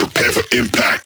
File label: Vocal Phrase Male "Prepare" Low